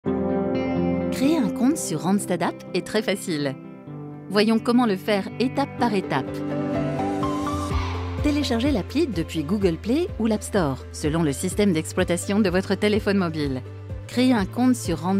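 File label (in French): tutorie voix off, voix off femme; ranstad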